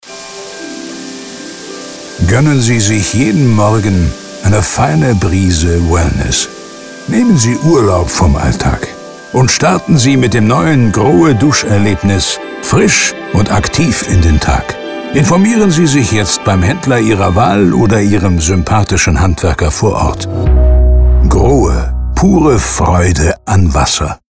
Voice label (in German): Duscherlebnis Spot; Grohe